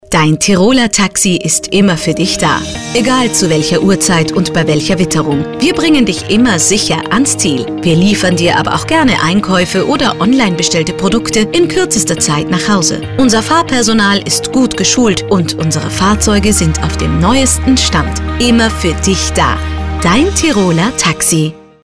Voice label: werbung taxi

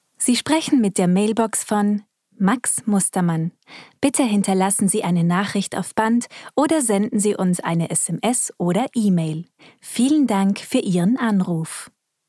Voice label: Mailbox

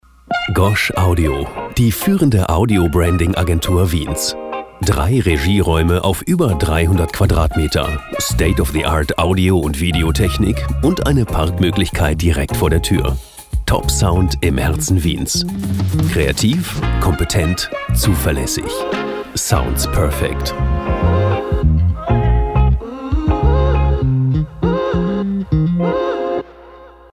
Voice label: Sprecherdemo Werbedemo

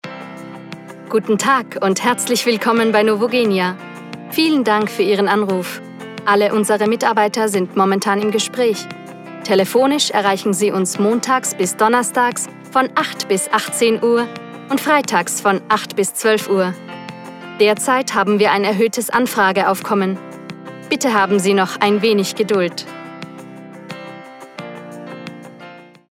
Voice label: Telefon

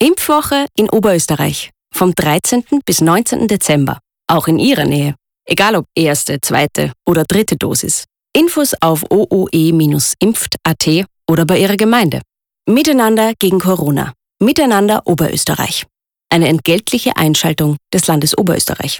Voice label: Werbung

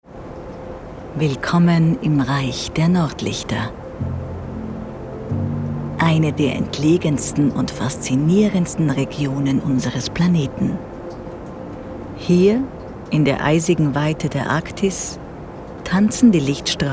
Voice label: Dokumentation

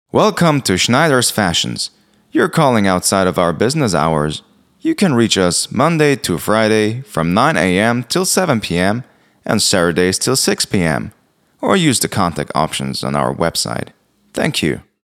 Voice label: Telefonansage; ENG

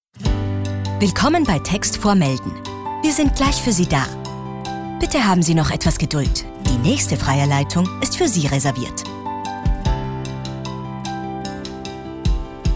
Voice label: Telefonansage

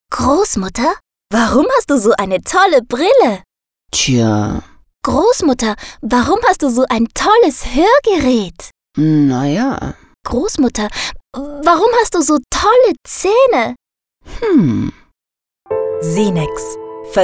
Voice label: Werbung

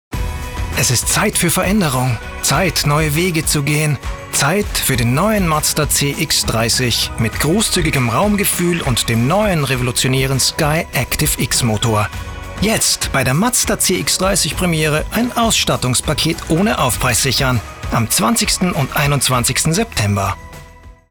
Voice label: Werbung; Mazda

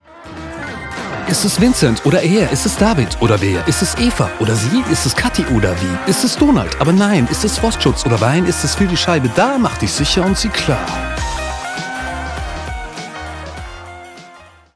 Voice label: Frostschutzspot Rap; Sonax

